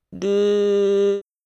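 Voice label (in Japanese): "る", Japanese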